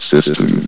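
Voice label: "system", male synthesized announcer voice; hlvox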